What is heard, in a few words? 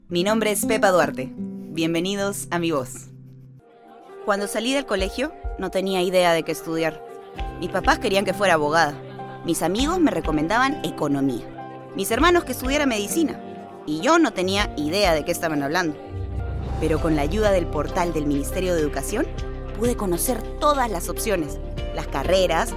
Spanish Latin